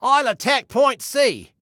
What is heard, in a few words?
ATTACK, Male